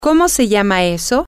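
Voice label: what Is That Called, Spanish, female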